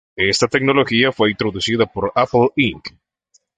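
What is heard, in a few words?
"introducida", Spanish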